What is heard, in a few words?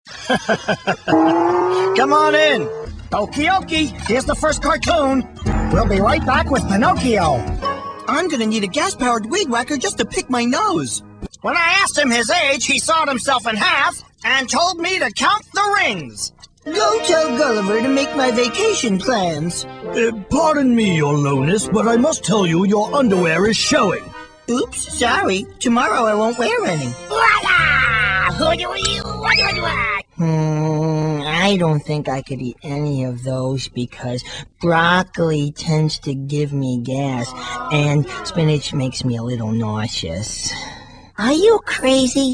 Animation Demo